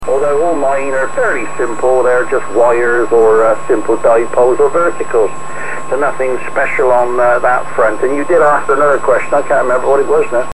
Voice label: 40 Mtr SSB; A short clip of an amateur radio SSB signal (in QSO) received on the Retro-Regen using a VFD bas

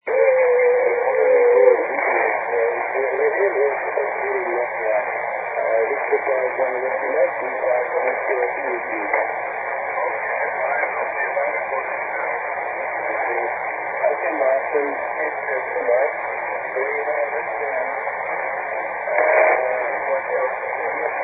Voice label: 80m SSB; SSB-SP

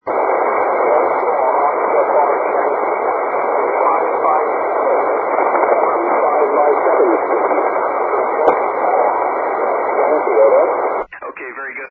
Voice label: 80 ssb; SSB-SP

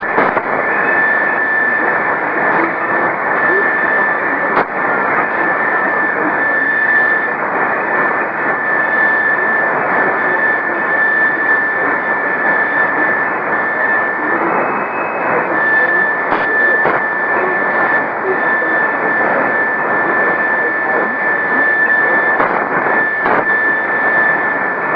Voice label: khmer

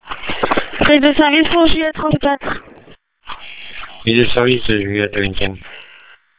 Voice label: dpmr mbelib

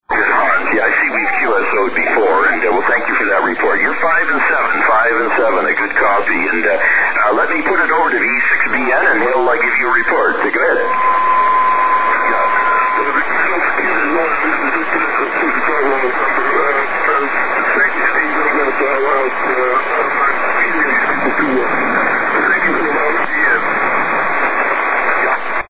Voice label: 40m SSB; SSB-SP; Dave MM0EAX made this recording of my signal in Scotland.; recorded directly from the FT-1000d

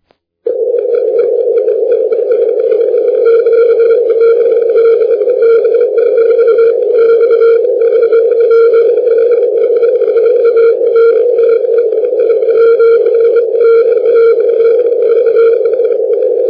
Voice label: qrz 80m LP

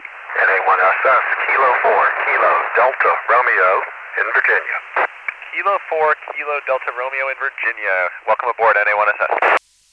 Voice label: ISS crew voice contact

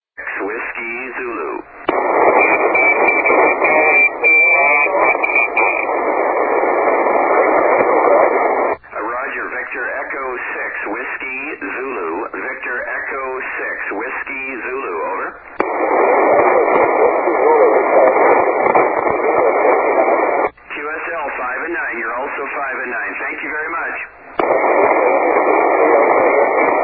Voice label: 80m SSB; 80m DXCC Entities worked from 2002-2008

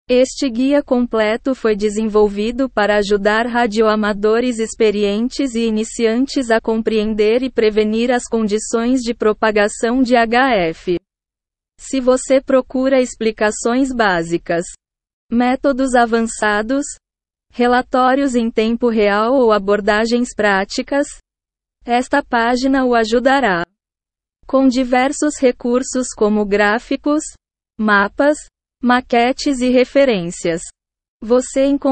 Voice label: Portuguese

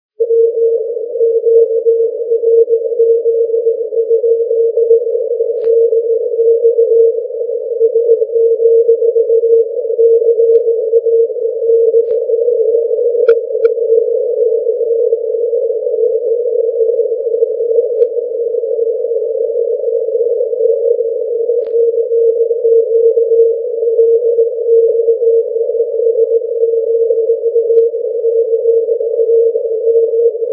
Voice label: CQ 160m

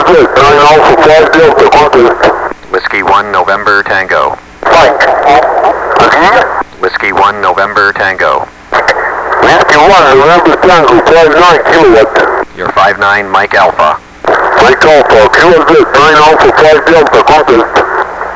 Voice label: croatia 40m ssb; 2009 arrl ssb

